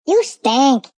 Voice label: You Stink, Male; Attitude Sound Effects